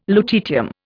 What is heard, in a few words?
"lutetium", Indian